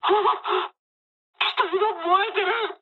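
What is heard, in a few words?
on fire mood med, speech japanese; War Thunder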